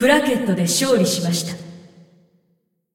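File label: announcer female tournaments win bracket generic, es ar; ja jp; clash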